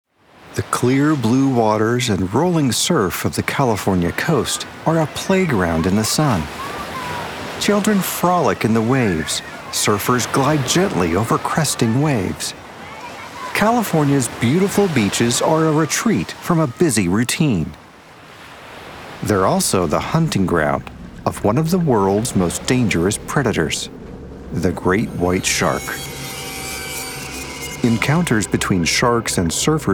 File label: voiceover documentary nature